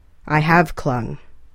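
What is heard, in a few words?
"I have clung", English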